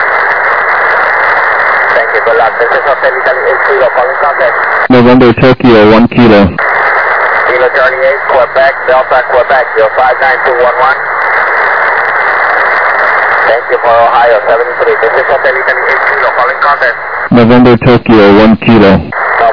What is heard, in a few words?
USB 28MHz; ARRL10M